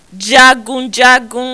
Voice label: "jagunjagun", Yoruba; jaguda jagunjagun eja